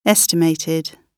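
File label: "estimated", British English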